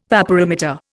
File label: "vaporimeter", Indian